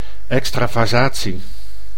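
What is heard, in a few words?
"extrasatie", Dutch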